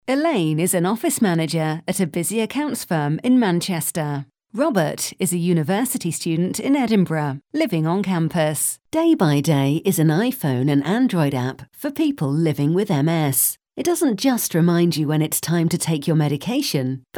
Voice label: Narration